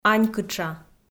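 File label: "анькытша", Komi, female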